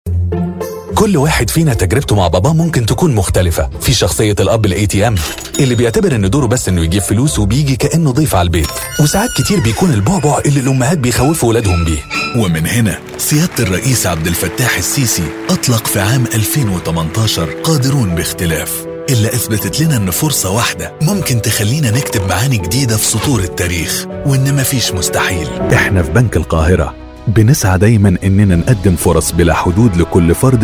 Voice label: NARRATION